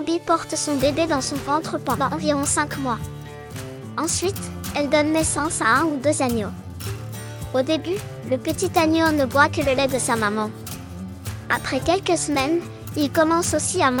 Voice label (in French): mouton enfant, explication; ranch du moulin st martin